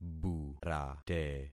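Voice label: "burate", concatenated syllables; Affixing experiment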